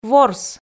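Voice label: "ворс", Komi, female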